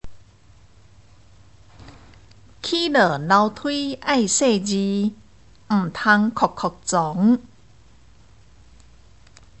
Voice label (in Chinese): (閩)生活常規